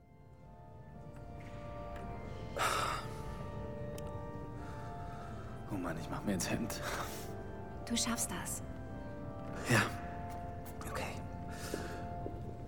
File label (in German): Hoerspiel